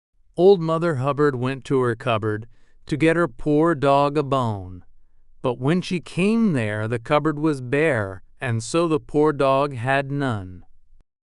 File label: male us; Old Mother Hubbard